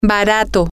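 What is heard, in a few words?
cheap, Spanish, female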